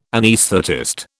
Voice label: "anaesthetist", British

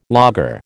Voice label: "lager", American

